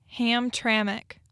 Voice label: "HAMTRAMCK", michigan accent